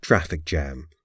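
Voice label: "traffic jam", English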